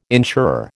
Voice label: "insurer", American